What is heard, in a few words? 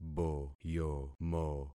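"boyomo", concatenated syllables; Affixing experiment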